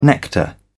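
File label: "nectar", British English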